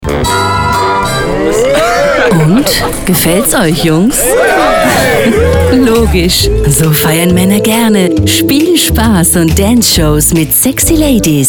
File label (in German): Maennerabend Radio Spot; Swisscasinos; OAW